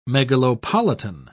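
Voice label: "megalopolitan", British English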